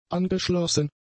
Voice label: "angeschlossen", German; adjectives